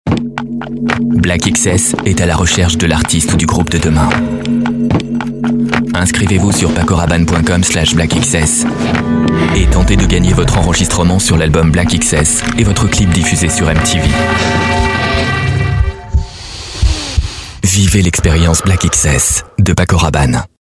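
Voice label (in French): elegant intime, comedien voix off homme; Paco Rabanne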